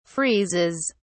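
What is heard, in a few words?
"freezes", British English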